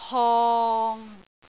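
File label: "hong6", Cantonese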